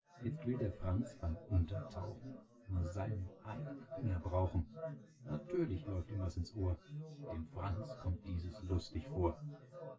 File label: male synth conv